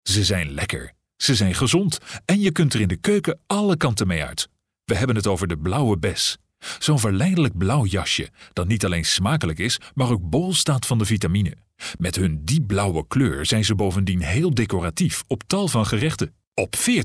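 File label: dutch male narration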